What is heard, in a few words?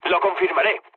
player killed enemy, mood low, speech